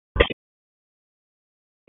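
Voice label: Dispatch, p25; wake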